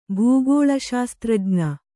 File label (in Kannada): "ಭೂಗೋಳಶಾಸ್ತ್ರಜ್ಞ", Kannada